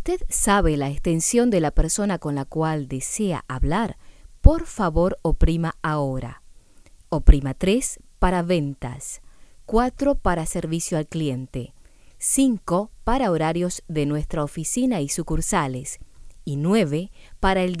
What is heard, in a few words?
Voice Over Intro